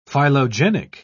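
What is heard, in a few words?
"phylogenic", British English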